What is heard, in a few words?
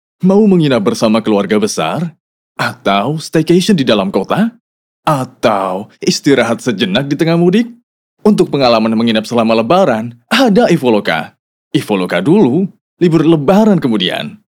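casual Indonesian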